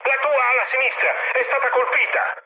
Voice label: left wing mood high, speech it; War Thunder